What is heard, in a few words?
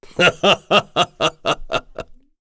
Vox Happy kr; heroes; Dakaris; kingsraid